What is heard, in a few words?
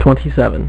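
"twenty-seven", male; MacCribbage; InterStellar MacCribbage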